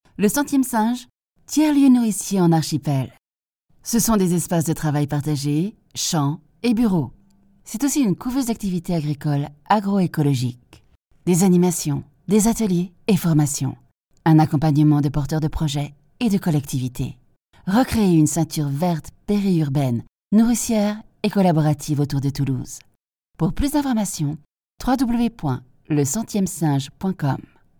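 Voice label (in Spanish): corporativo, French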